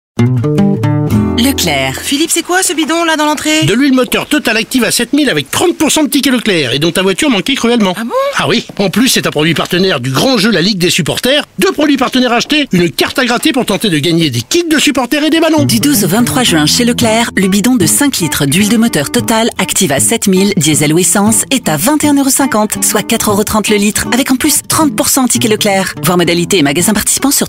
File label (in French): Spot Radio Voix Off; Leclerc